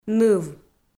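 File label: "ныв", Komi, female; audio dict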